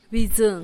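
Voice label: "bidzun", Teotitlán del Valle Zapotec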